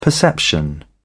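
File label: "perception", British English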